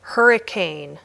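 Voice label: "hurricane", English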